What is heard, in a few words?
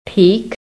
"PEAK", american; PHONETIC